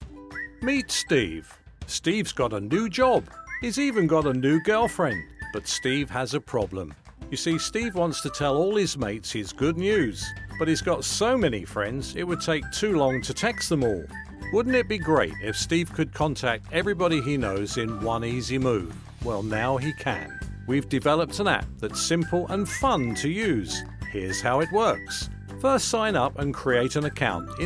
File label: Explainer Video